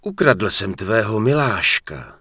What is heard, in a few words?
Human Male Goodbye; wowpreklad; czwow; HumanVocalMale